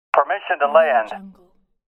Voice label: military radio voice permission to land

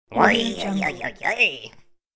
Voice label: cartoon villain warn voice

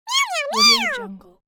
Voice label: cartoon cute kitty talk

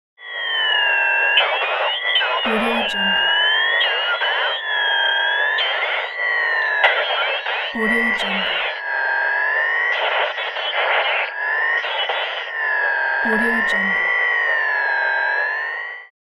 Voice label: unintelligible radio communications; applehillstudios

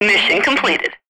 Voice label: female military radio voice mission completed

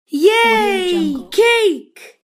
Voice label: boy says yay cake

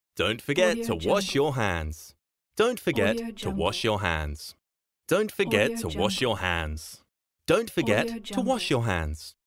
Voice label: british male voice dont forget to wash your hands

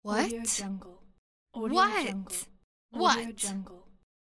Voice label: girl voice what; medusamusiclab

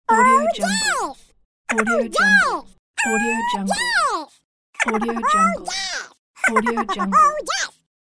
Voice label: minion triumphant yes pack

